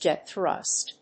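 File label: "jet thrust", English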